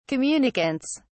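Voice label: "communicants", British English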